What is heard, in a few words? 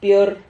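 "pior", Spanish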